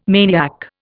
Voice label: "maniac", Indian